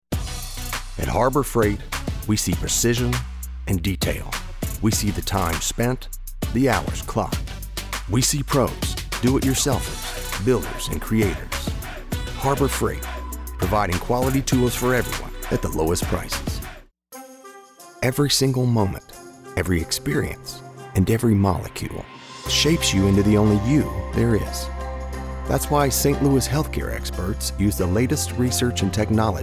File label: Authentic American Male Voice Actor